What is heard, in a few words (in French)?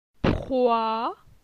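"Proi", French; phonetique; la syllabe oi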